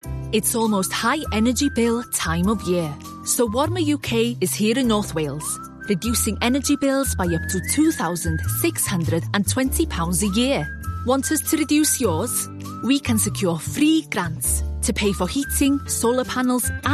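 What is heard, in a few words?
Welsh English female Welsh Accent voice-over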